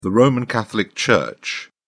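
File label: "roman catholic church", English, British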